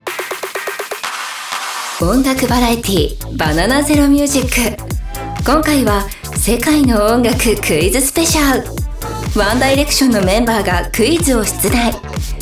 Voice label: narration